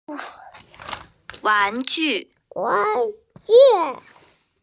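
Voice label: "wan2ju4", female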